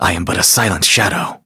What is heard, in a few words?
battle en; cookie run